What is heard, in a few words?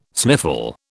"sniffle", British